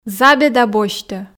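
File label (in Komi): "забеда босьтӧ", Komi, female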